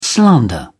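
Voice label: "slander", British English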